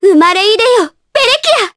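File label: Skill, Japanese; Ophelia; kingsraid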